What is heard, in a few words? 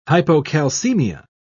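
"hypocalcemia", British English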